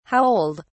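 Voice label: "how old", British English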